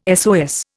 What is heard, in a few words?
"SOS", Indian